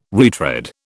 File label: "retread", British